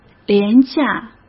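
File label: "廉价" Mandarin Chinese; chinese-flashcards; hsk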